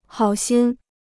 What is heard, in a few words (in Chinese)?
"好心", Chinese